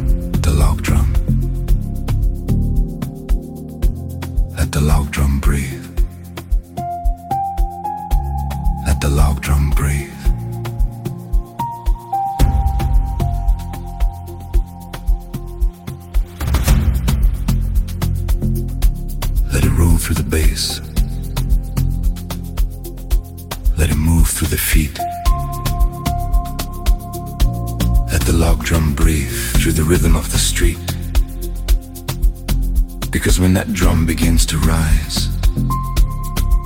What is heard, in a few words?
Let The Log Drum Breathe Amapiano Spoken Dj Intro